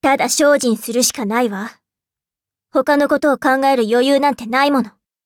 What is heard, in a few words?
levelup, ja; cookie run